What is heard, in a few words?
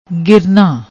"girna", Punjabi; ਗਿਰਨਾ